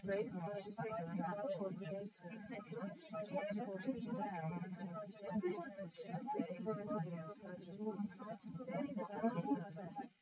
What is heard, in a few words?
female srec 130ms 20cm sim; SiSEC11; underdetermined